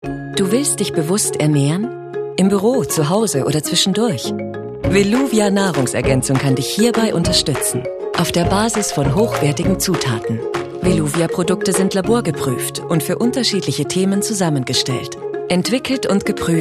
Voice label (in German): Freundlich; Veluvia